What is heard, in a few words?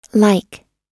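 "like", US English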